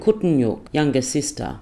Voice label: "kutenyuk" younger sister

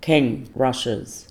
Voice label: "keng" rushes

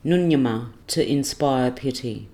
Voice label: "ngunyama" to inspire pity

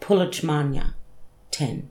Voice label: "pulety-manyə" ten

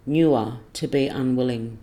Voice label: "nguwa" to be unwilling

